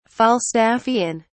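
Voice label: "falstaffian", English, British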